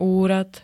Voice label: "úrad", Slovenské

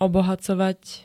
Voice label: "obohacovať", slovenské

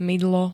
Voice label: "mydlo", Slovenské

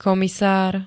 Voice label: "komisár", Slovenské

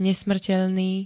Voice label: "nesmrteľný", Slovenské

